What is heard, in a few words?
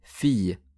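"fille", French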